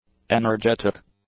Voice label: "energetic", English; adjectives

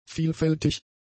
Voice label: "vielfältig", German; adjectives

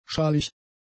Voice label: "schalig", german; adjectives

